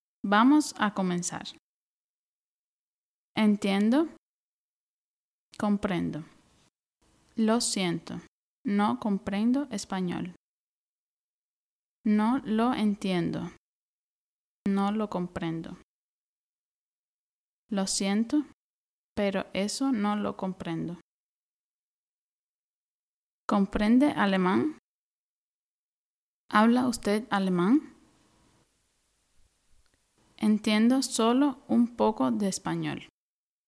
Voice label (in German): Hörprobe A1 spanisch Reintext langsam